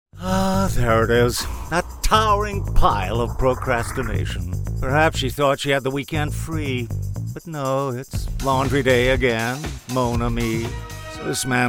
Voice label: Laundry App Humorous; Rinse